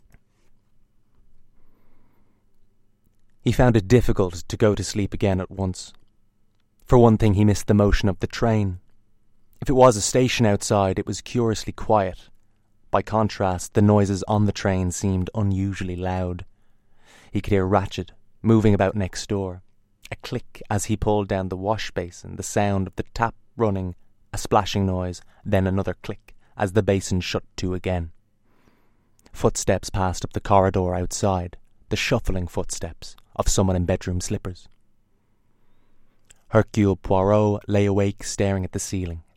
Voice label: narration